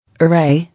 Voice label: "Array", English

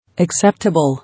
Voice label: "Acceptable", English

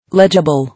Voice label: "Legible", English

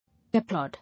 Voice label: "Applaud", English

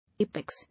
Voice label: "Apex", English

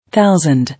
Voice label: "Thousand", English

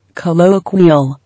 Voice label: "Colloquial", English

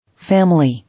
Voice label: "Family", English

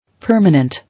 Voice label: "Permanent", English

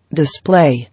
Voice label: "Display", English

